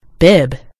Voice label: "bib", en GB; gcompris

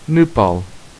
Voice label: "nepal", pt; gcompris; geography

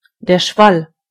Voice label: splash, de; gcompris

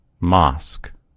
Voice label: "mosque", en US; gcompris

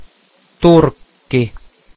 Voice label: "turkey", fi; gcompris-data; geography

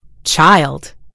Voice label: "child", en GB; gcompris

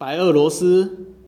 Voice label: belarus; gcompris-data; geography

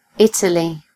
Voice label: "italy", en GB; gcompris

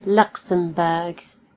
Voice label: "luxembourg", en GB; gcompris

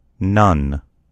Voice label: "nun", en US; gcompris

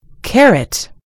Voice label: "carrot", en GB; gcompris

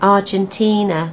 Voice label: "argentina", en; gcompris; geography

